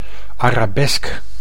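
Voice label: "arabesk", Dutch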